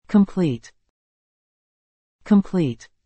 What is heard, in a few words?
"complete", English